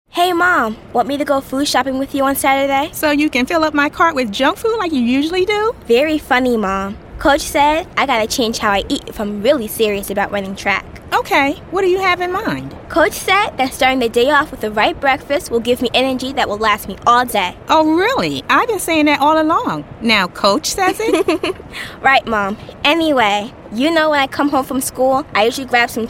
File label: commercial for healthy eating campaign